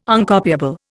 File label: "Uncopiable", Indian